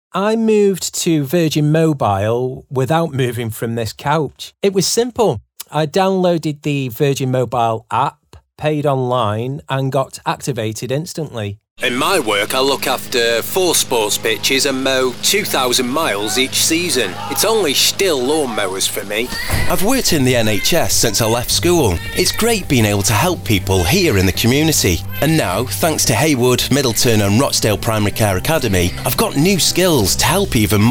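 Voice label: Natural Conversational, British Male Voice Over; Natural Conversational Showreel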